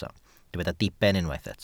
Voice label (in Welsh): "diben", Welsh